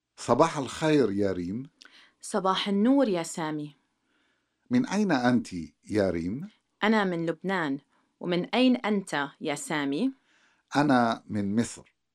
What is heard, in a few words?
Conversation; SALAMAAT